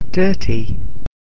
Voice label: "dirty", English